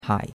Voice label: "hai3", chinois; Cours de Chinois